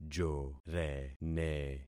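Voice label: "jorene", concatenated syllables; Affixing experiment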